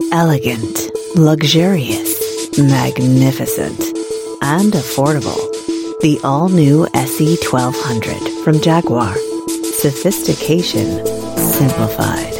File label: US English; Jaguar